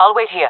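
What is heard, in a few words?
waiting here, female voice; player vo; Counter Strike Model Pack; CS:GO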